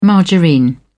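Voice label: "margarine", English, British English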